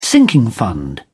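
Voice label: "sinking fund", British English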